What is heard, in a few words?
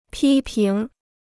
"批评", Chinese